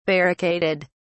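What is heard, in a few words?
"barricaded", British English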